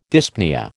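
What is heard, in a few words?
"dyspnoea", American English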